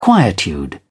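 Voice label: "quietude", British English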